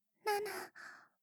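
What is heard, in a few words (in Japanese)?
言葉責めセリフ用カウント, ASMR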